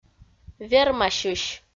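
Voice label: "вермасьысь", Komi-Zyrian, female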